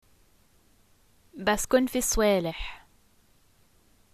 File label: I live in, arabic levantine